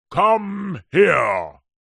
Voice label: human old grumpy man english says angrily come here; zapsplat